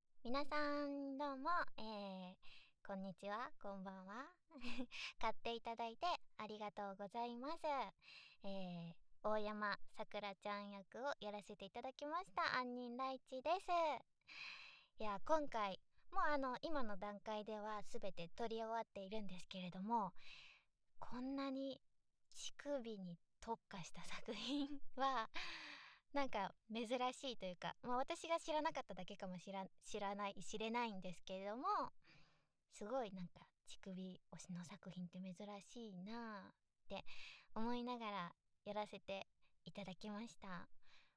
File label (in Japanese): フリートーク, ASMR